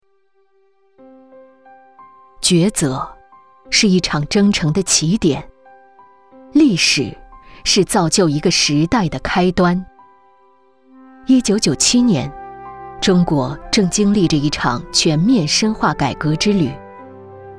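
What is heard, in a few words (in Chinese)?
纪录片企业发展; 东旭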